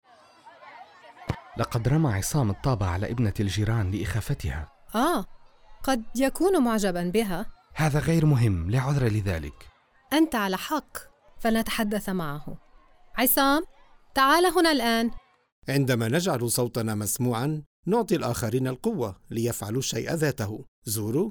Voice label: Radio Arabic; CALD